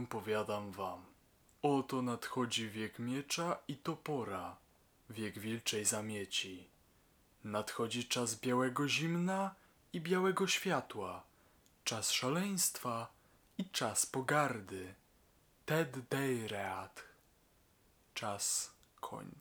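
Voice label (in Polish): mozos mkit700pro v2 bez filtra, mikrofon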